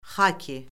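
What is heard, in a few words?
"xaki", Azerbaijani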